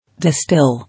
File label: "Distil", English